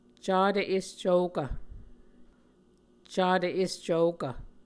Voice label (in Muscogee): "catv escvokv", Muscogee